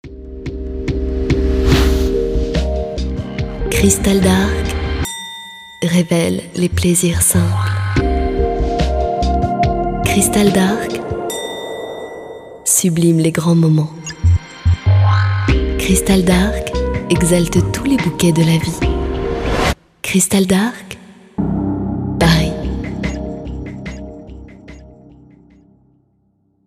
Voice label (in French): sensuelle aérienne; Cristal d'Arques